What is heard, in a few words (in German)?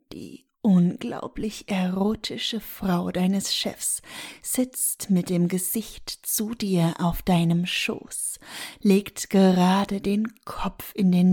erotisches Hörbuch; Ohrgasmus; Die Weihnachtsfeier Reloaded